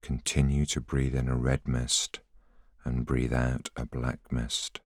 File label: voiceover